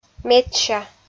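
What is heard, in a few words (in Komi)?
"медся", Komi-Zyrian, female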